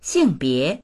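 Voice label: "xing4bie2", Chinese; xìngbié; 性别; 性別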